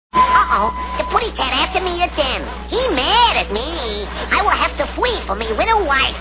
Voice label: uh oh